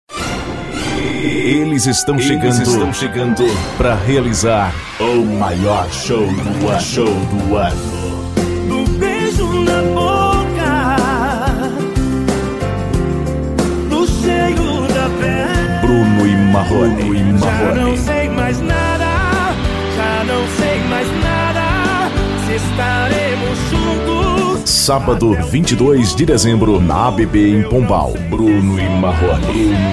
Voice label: spot; aabb show

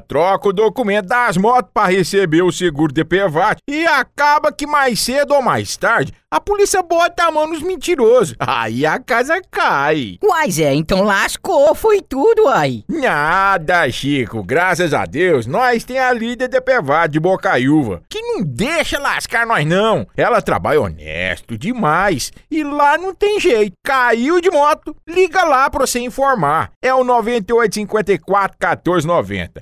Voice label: publicidade voz caipira 2 vozes mesmo locutor

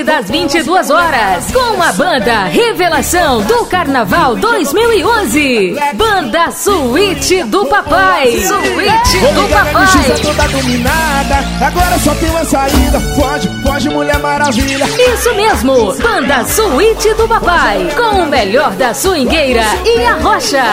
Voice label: chamada noite; mulher maravilha

